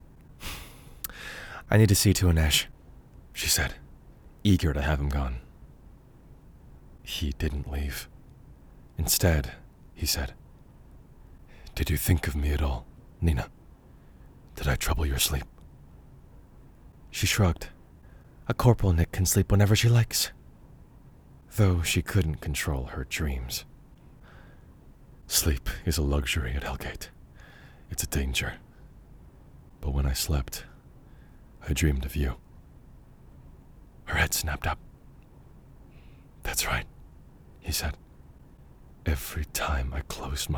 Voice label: Voiceover, Audio Books; New York

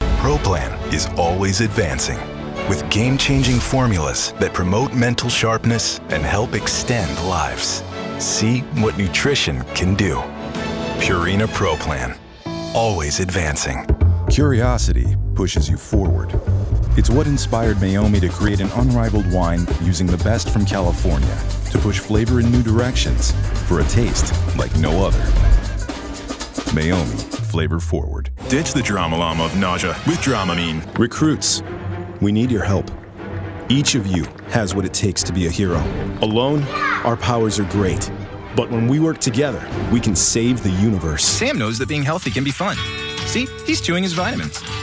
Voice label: Voiceover, Commercial, Men; Chicago

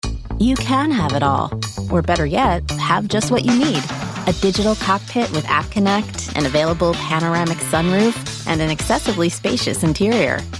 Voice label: voiceover animation; new york

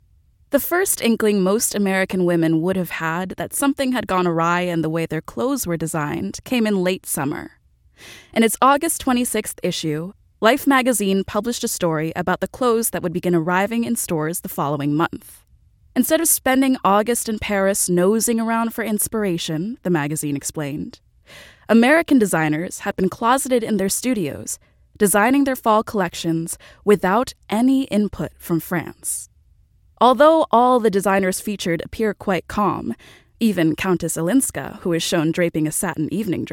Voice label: voiceover audio books; new york

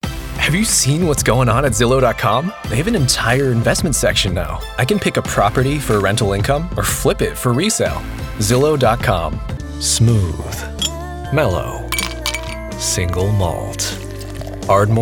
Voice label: voiceover animation; New York

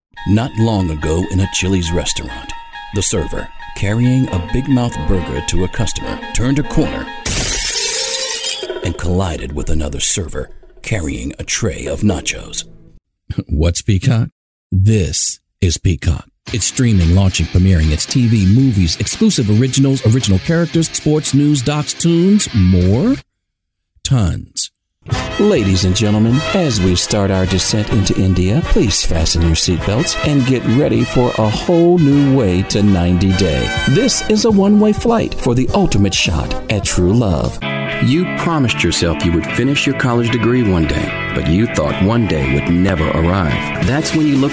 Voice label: voiceover animation; New York

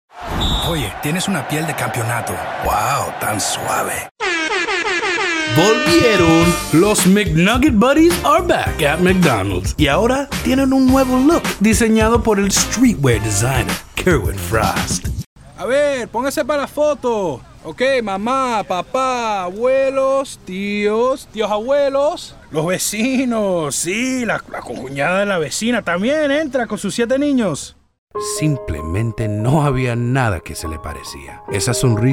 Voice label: voiceover animation; new york

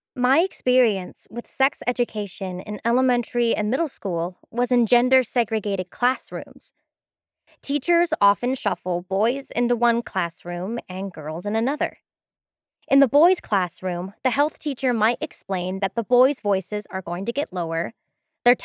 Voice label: voiceover audio books; New York

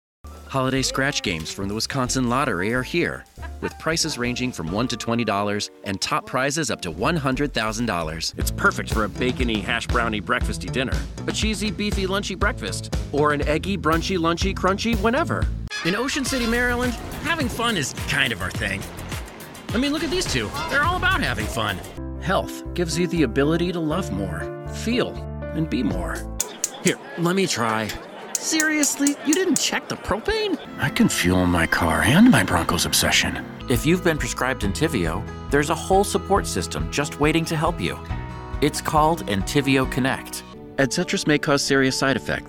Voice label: Voiceover, Commercial, Men; Chicago

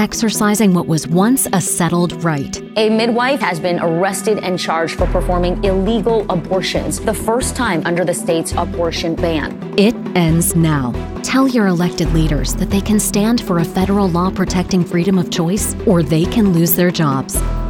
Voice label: voiceover political women; new york

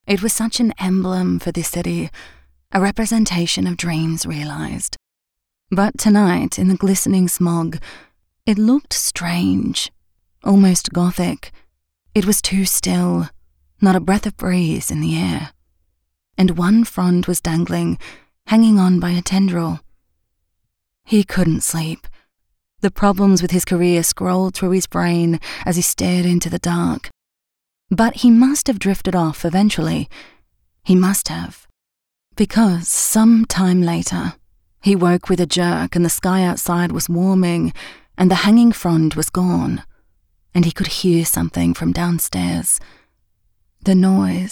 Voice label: Voiceover, Commercial, Women; New York

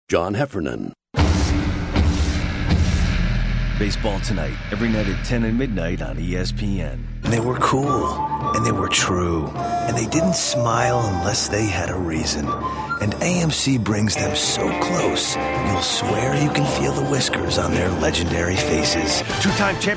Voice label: Voiceover, Promos, Network/cable, Men; New York

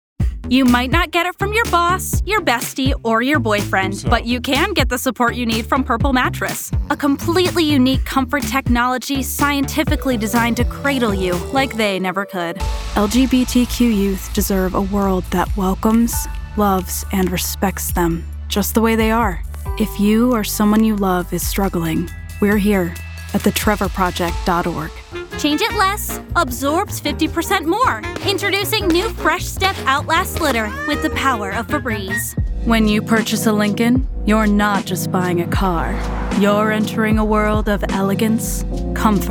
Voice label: Voiceover, Commercial, Women; New York

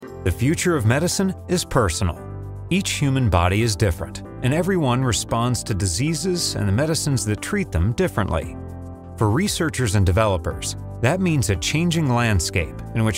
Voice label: voiceover commercial men; Chicago

